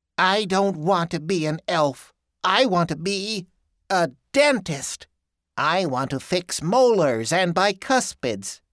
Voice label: Hermie sample, holiday voice over